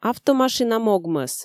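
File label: Komi, female; автомашина могмӧс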